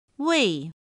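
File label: "ùi", Chinese; pinyin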